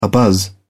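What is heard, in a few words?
"abuzz", English, British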